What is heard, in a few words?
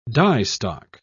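"diestock", British English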